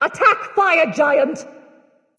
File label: vox attack; smite; izanami